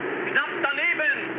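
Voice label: German bomb failure mood high, speech; War Thunder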